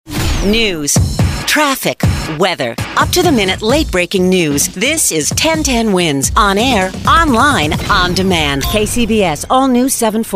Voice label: radio imaging